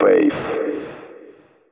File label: techno male 140; Vocals